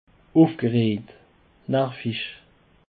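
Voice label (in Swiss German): "ufgerejt", Alsatian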